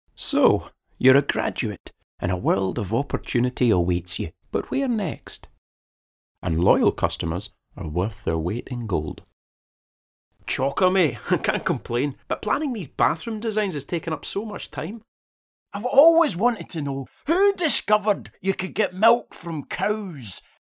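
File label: English Scottish, male, voice over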